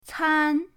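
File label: "can", Mandarin pinyin, first tone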